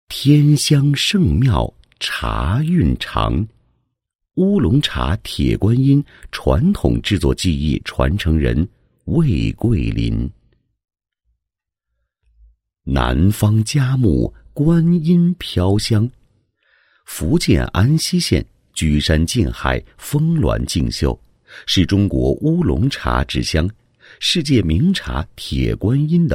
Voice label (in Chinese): 男 人物 乌龙茶（铁观音）传统制作技艺传承人